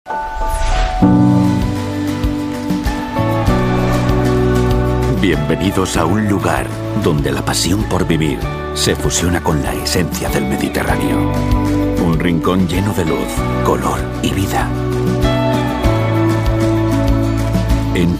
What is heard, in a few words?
spanish corporate videos; voice actors